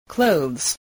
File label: "clothes", voice